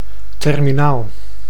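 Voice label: "terminaal", Dutch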